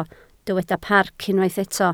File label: "parc", Welsh